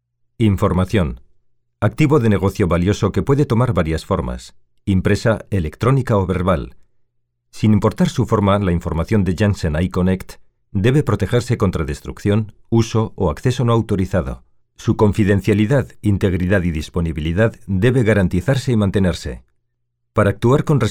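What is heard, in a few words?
Spanish Spain, male, voice over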